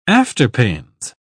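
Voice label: "afterpains", British English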